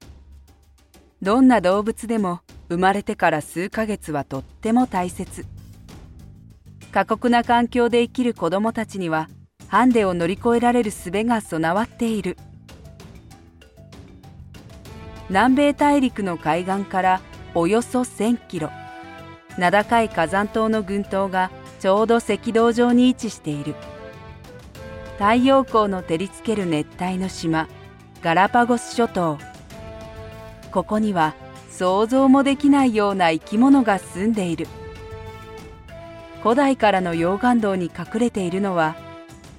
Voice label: japanese documentaries